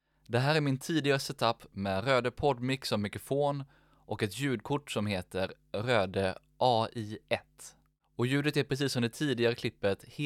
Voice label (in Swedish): ljudtest rode podmic